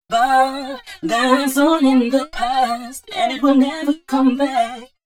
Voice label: female, D 120bpm Fx, Dance Ultra Voice, short hooks; Mutekki Media; Dance Ultra Voice VOL-1